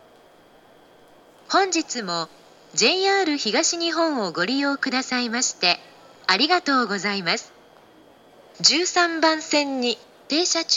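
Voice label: ueno local takasaki, ekihousou; jr-east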